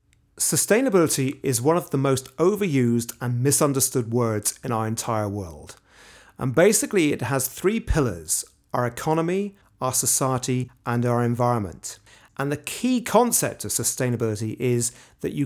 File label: Education Documentary